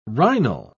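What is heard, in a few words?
"rhinal", British English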